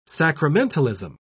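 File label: "sacramentalism", English, British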